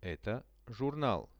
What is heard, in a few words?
"eto zhurnal", Russian; Это журнал.; veshi